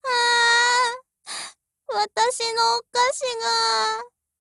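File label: BREAK Japanese, Voice; GF Alarm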